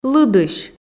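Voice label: "лыдысь", Komi, female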